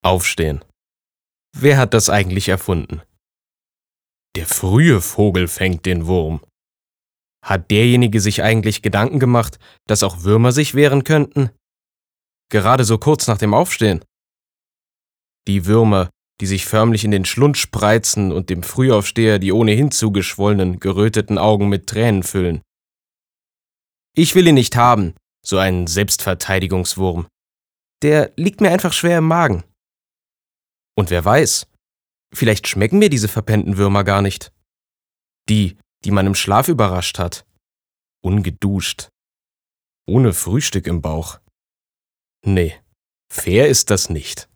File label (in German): Witzig; Der Fruehe Vogel